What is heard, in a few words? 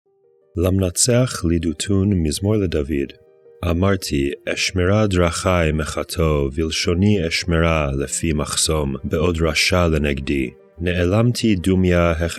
poetry and prayer psalm hebrew